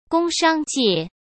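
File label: "工商界", Mandarin Chinese; hsk; chinese-flashcards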